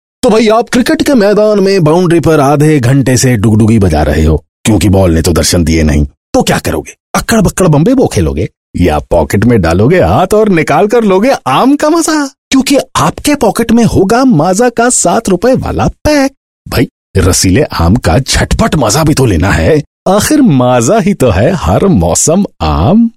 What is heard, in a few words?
Character hindi, male voice